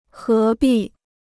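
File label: "何必", Chinese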